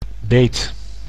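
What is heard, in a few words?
"beet", Dutch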